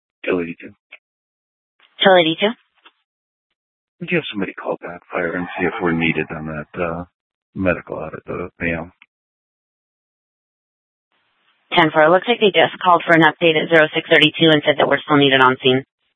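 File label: Scottsdale PD North Dispatch; Nth Dispatch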